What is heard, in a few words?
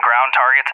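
"ground targets", English, USA; DCS; Allied Flight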